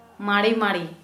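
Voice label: slowly, Kera Mundari, female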